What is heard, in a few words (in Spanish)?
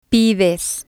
"pides", spanish